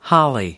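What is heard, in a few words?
"holly", American English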